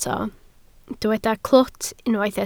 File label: "clwt", Welsh